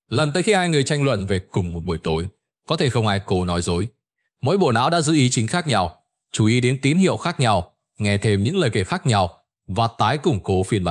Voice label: narration; Vi Sao Nao Bo Khien Ban Nho Nhung Dieu Chua Tung Xay Ra; StickerMan